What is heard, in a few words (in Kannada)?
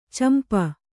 "ಚಂಪೂ", Kannada